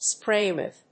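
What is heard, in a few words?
"spray with", English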